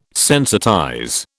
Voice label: "sensitize", British